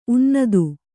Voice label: "ಉನ್ನತ", kannada

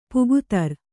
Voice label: "ಪೊಗುತರ್", Kannada

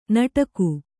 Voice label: "ನಡಗೆ", kannada

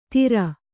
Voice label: "ಧೀರ", Kannada

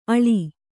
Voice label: "ಅಳಿ", Kannada

